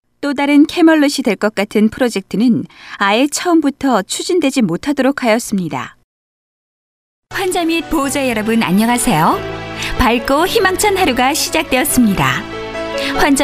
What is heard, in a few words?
Korean Professional female, Foreign Voice Talent